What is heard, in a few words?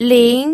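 "ling", second tone, pinyin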